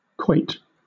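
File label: "quoit", British English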